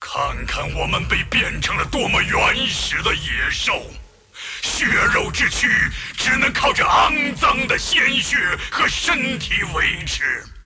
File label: move, zh